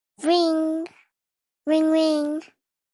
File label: wing wing wing child voice notification